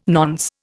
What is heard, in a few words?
"nonce", Indian